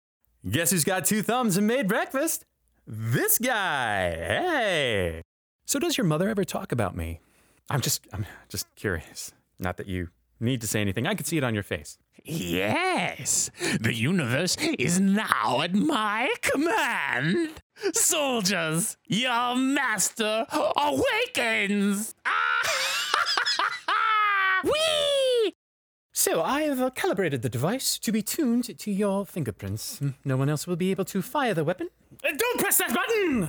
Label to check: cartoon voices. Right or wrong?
Right